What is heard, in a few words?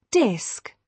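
"disk", British English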